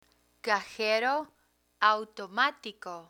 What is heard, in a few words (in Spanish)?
"cajero automatico", Spanish; banking terms